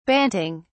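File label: "banting", British English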